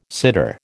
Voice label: "sitter", American

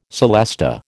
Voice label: "celesta", American

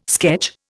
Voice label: "sketch", Indian

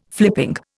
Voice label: "flipping", Indian

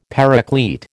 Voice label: "Paraclete", American

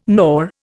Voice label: "gnaw", Indian

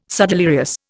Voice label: "subdelirious", Indian

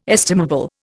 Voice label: "estimable", Indian

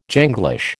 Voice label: "Janglish", American

